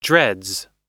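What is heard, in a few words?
"dreads", American English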